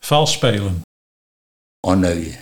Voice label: "Onneuze", Nieuw lekkerlands dialect; vals spelen

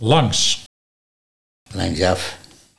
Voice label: "Langsaf", Nieuw lekkerlands dialect

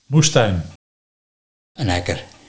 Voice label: "Akker", Nieuw lekkerlands dialect; bouwland of akker

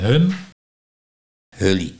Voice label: "Hullie", Nieuw Lekkerlands dialect; hun

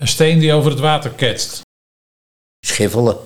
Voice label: "Een steen over het water ketsen - Schiffelen", Nieuw lekkerlands dialect

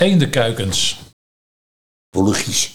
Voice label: Poelechies, Nieuw lekkerlands dialect; eendekuikens; poelechies / poeletjies

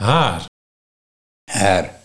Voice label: "Hair", dialect Nieuw lekkerlands; haar

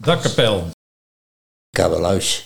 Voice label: "Kappeluis", Nieuw lekkerlands dialect; dakkapel

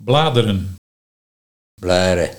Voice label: "Blaaie of blaaiere", Nieuw lekkerlands dialect; bladeren

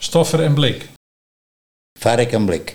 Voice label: Varke en blik, dialect Nieuw lekkerlands; Stoffer en blik

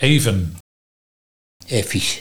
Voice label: Effies, Nieuw lekkerlands dialect; Even; Effe, effies

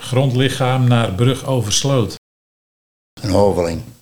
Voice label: "Hoveling", Nieuw lekkerlands dialect; grondlichaam naar brug over sloot

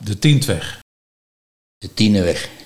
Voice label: De tieneweg, Nieuw lekkerlands dialect; tiendweg

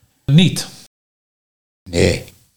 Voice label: "Niet - Nie", Nieuw lekkerlands dialect

